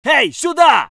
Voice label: voice sov infantry